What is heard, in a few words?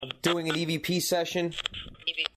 evp